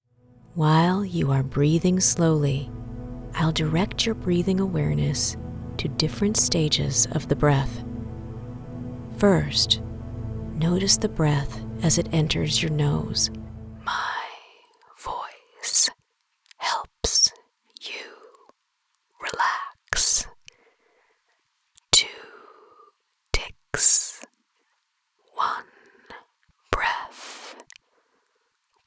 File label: english american